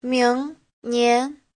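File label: "míngnián", Chinese; Giáo trình Hán ngữ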